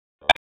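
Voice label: Raleigh Police North District Tactical, p25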